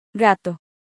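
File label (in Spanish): "Gato", Spanish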